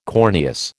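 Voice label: "corneous", American English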